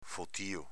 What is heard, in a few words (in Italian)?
"fotio", dialetto veneto; avv. moltissimo